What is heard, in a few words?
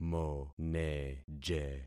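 "moneje", concatenated syllables; Affixing experiment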